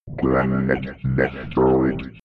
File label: robot voice planet destroyed fx